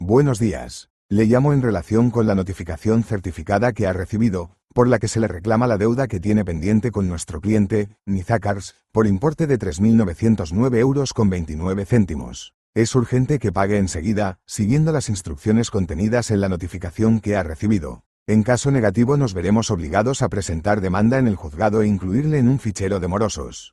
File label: audio call